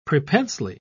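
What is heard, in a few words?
"prepensely", British English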